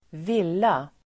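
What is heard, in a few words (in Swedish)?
"villa", Swedish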